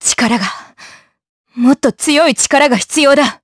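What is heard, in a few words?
Vox Victory, Japanese; Seria; kingsraid audio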